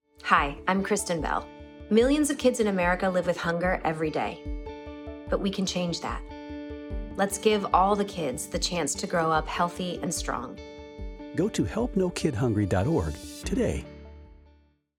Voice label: Radio PSA; Kristen Bell; no kid hungry